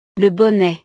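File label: "le bonnet", French; ropa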